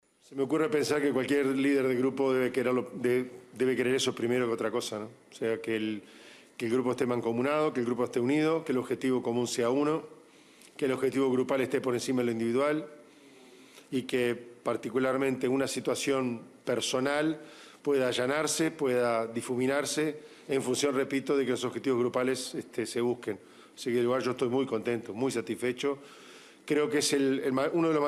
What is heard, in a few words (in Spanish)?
cuña